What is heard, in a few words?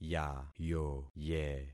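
"yayoye", concatenated syllables; Affixing experiment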